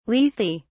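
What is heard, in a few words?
"lethe", British English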